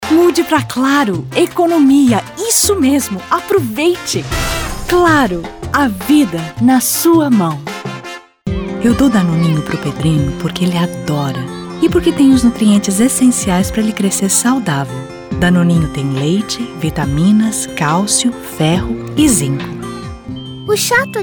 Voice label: Portuguese VO